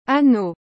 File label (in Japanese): "anneau", フランス語; 男性名詞